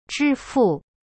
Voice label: "支付", Chinese; zhi fu